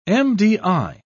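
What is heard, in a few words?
"mdi", British English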